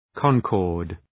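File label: "concord", English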